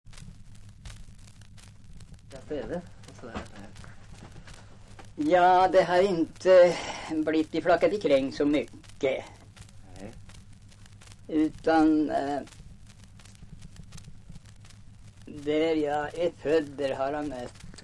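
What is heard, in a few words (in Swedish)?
Ö.Tollstad Östergötland, dialekter, svenska sagor; Folkminnen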